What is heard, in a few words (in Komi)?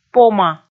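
"пома", Komi, female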